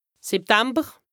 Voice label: "Septamber", lexique indispensable haut rhin, Alsace

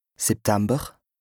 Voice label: "Septamber", lexique indispensable bas rhin, Alsace; septembre; D'Daj, Monet, Johreszitte

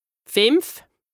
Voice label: "fìnef", lexique indispensable haut rhin, Alsace; 5

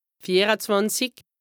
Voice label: "vier-e-zwànzich", lexique indispensable haut rhin, Alsace; 24

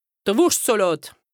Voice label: HAUT RHIN, Dialectes du Haut-Rhin; salade de cervelas; d’r Versteckelter; lexique indispensable

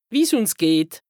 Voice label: "Wie's ùns geht", lexique indispensable haut rhin, Alsace; comment ça va; Saint-Louis

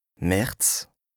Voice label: "März", lexique indispensable bas rhin, Alsace; mars

